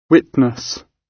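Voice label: "witness", British English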